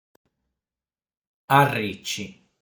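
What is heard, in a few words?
"Arrici", Sardinian word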